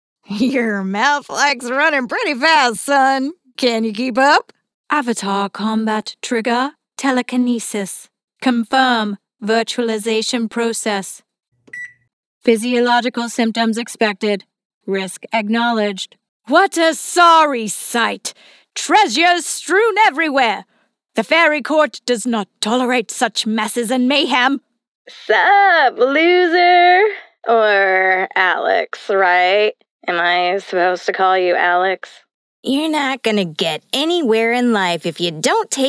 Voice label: Characters Compilation

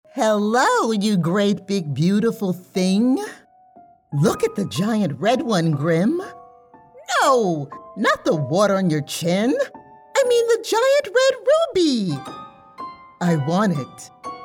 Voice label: Character Animation; Hildy; Red Ruby

